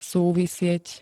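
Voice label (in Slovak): "súvisieť", Slovak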